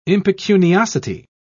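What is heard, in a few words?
"impecuniosity", British English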